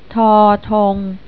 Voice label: "thong", Thai